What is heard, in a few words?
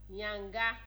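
"yanga", Nigerian Pidgin, female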